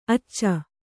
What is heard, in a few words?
"ಆಚ", Kannada